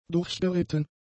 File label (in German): "durchgeritten", German; adjectives